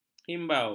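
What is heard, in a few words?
"himbau", Malay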